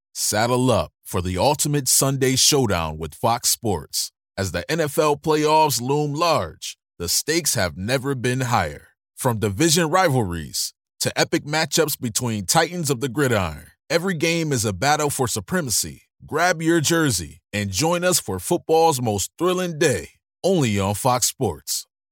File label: Sports NFL Playoffs Promo; Fox Sports